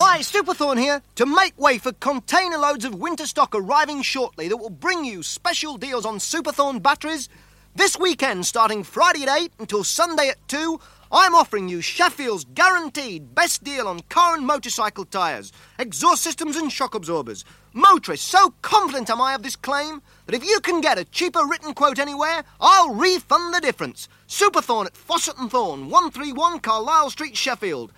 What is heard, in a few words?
Radio Hallam Advert; Fosset and Thorne